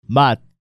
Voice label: "Mat", Korean